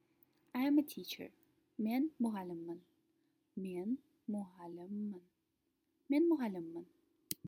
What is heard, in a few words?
I am a teacher, kazakh